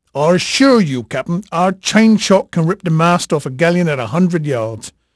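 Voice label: English male, voice; Pirates Of The Caribbean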